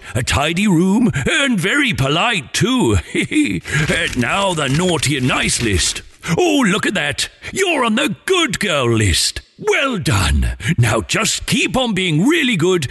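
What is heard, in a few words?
Personalised Santa Message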